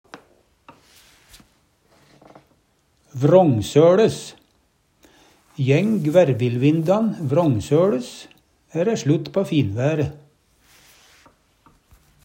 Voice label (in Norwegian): "vrangsoLes", Numedalsmål; Jæng gværvilvindan vrångsøLes, æ're slutt på finvære.; Adverb